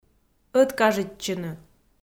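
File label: "ӧткажитчыны" Komi, female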